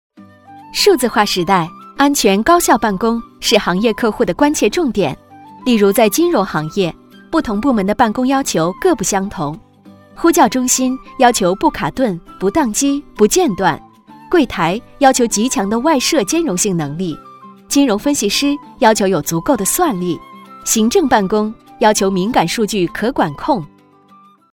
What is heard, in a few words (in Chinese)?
产品介绍甜美轻松安全办公